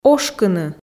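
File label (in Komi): "ошкыны", Komi, female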